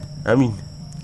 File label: "sit", wahgi; lae unitech